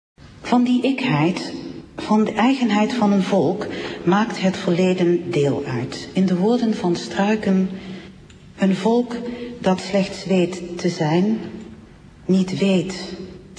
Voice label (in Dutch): Poldernederlands; geboren in 1956 te Kerkrade; studie Rechten Universiteit van Tilburg; voorzitter Eerste Kamer der Staten Generaal; Yvonne Timmerman-Buck